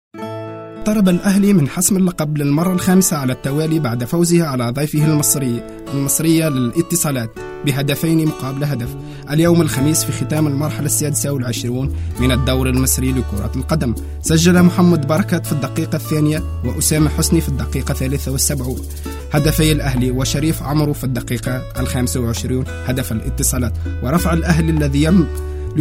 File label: arabic boy